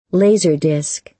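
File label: "laser disk", American English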